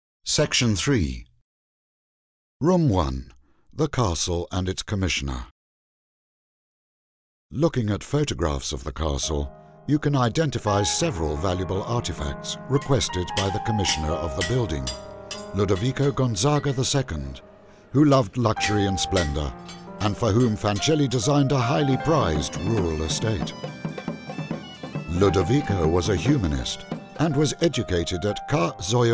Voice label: eng, castello; palazzo ducale